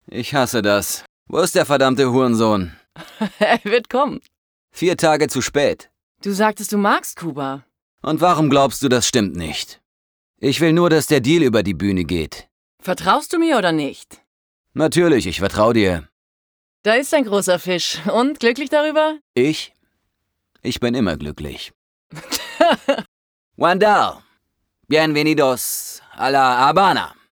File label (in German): Aggressiv